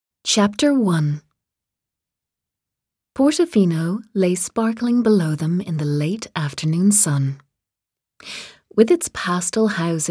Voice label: english irish audiobooks